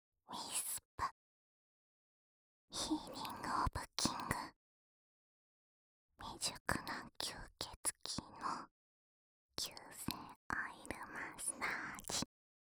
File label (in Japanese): タイトルコール